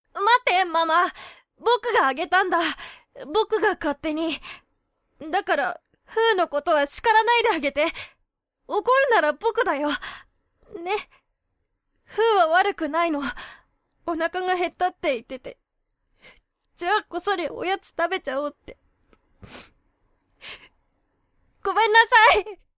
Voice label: boy; 少年; 妹をかばう少年